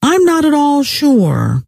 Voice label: im not sure, scientist female